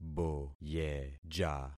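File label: "boyeja", concatenated syllables; Affixing experiment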